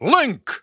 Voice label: Announcer; Ssbb; Link

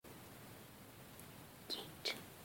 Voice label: British Yeet

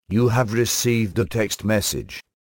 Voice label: Text Message - Male

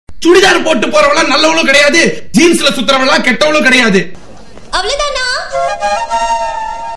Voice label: Cute Conversation

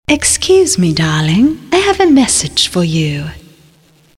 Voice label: Excuse Me Sms Tone

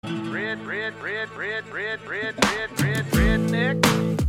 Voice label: Redneck Call

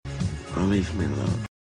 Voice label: Morning Voice

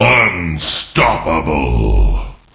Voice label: Announcer; Unreal

